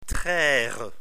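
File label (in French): "traire", French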